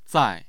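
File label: "zai4", Mandarin Chinese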